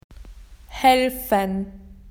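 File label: "to help", Australian English; PictureDictionary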